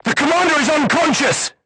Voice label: voice message commander contused, crew tanks vietnamese